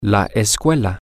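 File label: male, spanish; school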